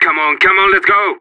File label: radio lets go; Counter Strike; CS:GO; separatist